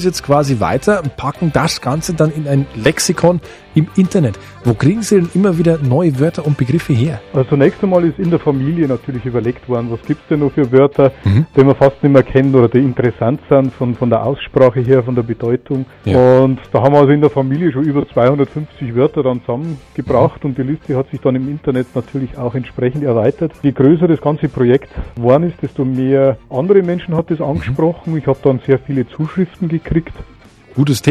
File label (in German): Mundart